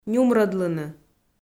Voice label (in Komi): "нюмрӧдлыны", Komi